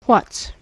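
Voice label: "what's", American English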